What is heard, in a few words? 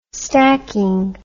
"stacking", English